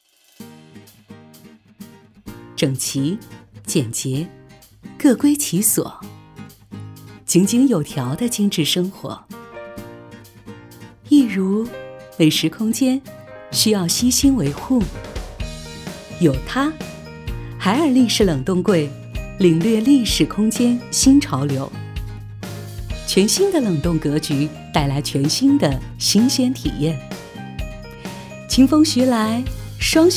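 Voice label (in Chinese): 女 【宣传片】立式冷冻柜; 海尔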